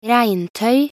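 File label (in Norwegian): "regntøy", Norwegian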